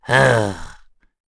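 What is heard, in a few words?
Vox Think, voices heroes English; kingsraid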